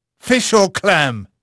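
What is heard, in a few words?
English male; Pirates Of The Caribbean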